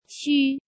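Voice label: "Xū", Chinese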